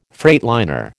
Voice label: "freightliner", American

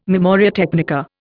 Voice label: "memoria technica", Indian

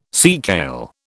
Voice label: "seakale", British

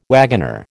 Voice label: "wagoner", American

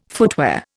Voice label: "footwear", Indian

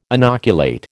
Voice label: "inoculate", American

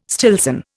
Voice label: "Stillson", Indian

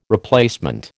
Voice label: "replacement", American

